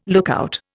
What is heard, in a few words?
"lookout", Indian